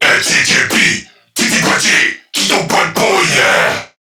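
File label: Attack Crewmen, English; Dialog; Barks; Battle; Warframe; CorpusCrewmen; CrewmanA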